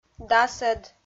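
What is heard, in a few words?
"дасӧд", Komi, female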